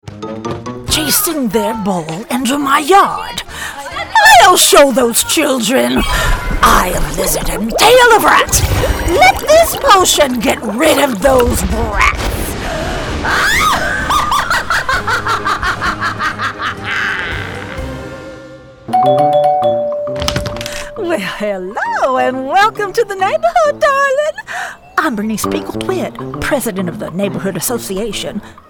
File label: Animation Demo